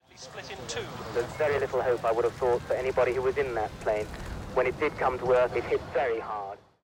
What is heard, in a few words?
intro news